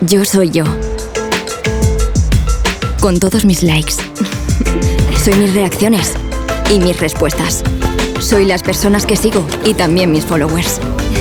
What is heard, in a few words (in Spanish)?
Testimonial Joven; Maxibon